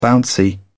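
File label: "bouncy", British English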